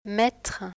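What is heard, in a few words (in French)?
"mettre", French; ɛ; I. Le système vocalique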